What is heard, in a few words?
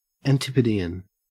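"antipodean", Australian English; pronunciations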